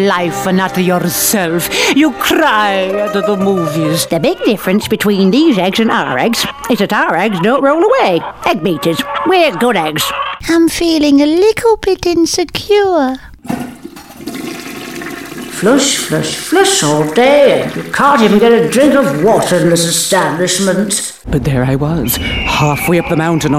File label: Character Voices